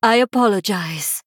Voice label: Female Draenei Sorry